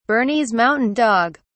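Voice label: "bernese mountain dog", British English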